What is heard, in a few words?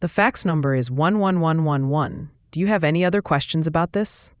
question english